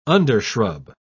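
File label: "undershrub", English, British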